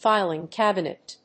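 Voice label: "filing cabinet", English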